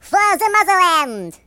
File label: Kamikaze, Russian; hedgewars